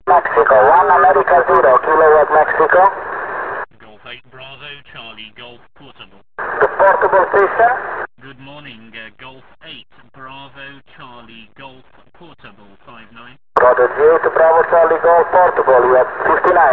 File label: qso